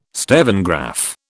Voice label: "stevengraph", British